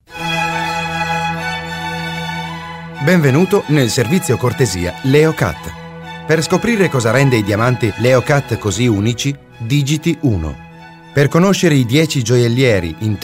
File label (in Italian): segreterie, answer machines